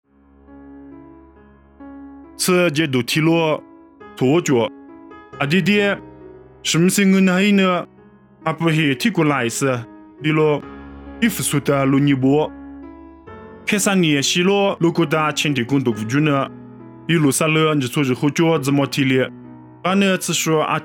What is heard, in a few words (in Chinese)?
凉山彝语; 地中海弗吕家